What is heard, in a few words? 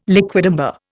"liquidambar", Indian